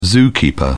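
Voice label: "zookeeper", British English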